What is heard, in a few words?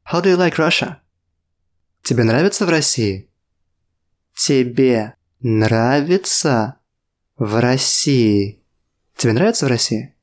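Russian, How do you like Russia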